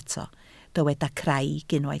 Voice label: "craig", Welsh